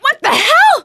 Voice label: charger running with player, survivor voice; producer